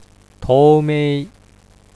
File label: "toumei", Japanese